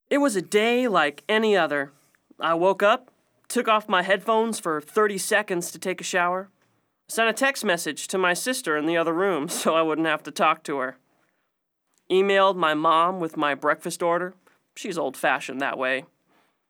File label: opening narration